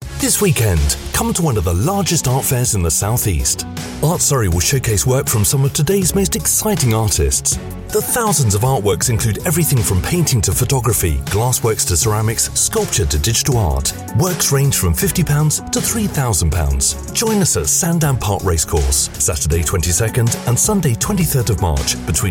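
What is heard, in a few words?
radio ad, Velvety British Voice; surrey sandown park; art surrey sandown park ad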